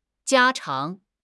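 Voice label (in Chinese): "家常", Chinese; HSK; HSK6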